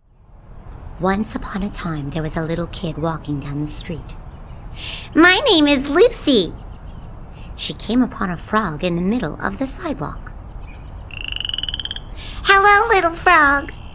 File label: V.O. Cartoon Animation